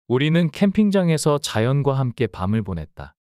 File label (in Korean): 문장 남자; 우리는 캠핑장에서 자연과 함께 밤을 보냈다